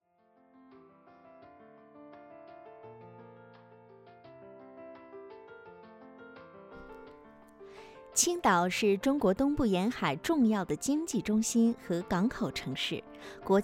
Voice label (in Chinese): 专题轻松甜美城市宣传旅游宣传语音导游